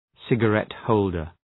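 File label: "cigarette-holder", English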